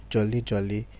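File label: "jolly jolly", Nigerian Pidgin, male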